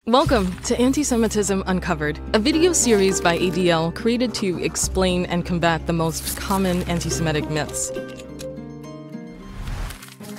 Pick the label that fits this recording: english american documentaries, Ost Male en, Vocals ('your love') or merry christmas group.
english american documentaries